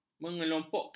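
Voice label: "mengelompokkan", Malay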